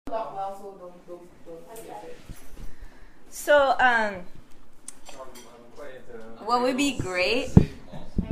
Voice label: Singlish